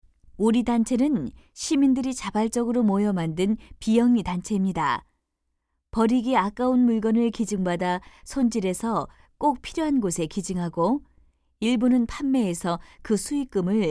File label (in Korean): 내레이션; 도덕; 이웃에 대한 배려와 상호 협동